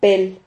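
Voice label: "pel", Spanish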